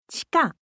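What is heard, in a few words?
"chika", Japanese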